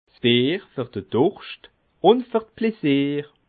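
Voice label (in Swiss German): "plasier", Bas Rhin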